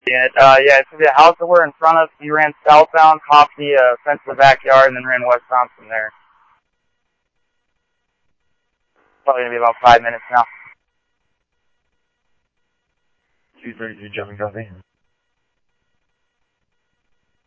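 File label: Phoenix police department, scanner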